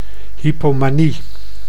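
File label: "hypomanie", Dutch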